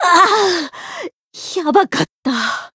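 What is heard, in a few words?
Voice win barely, Japanese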